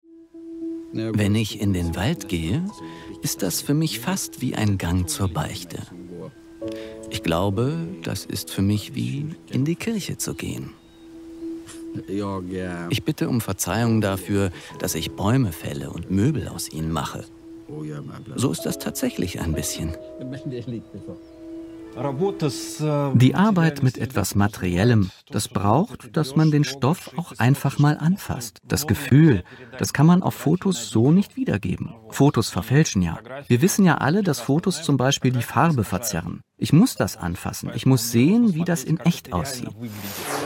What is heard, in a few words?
voice over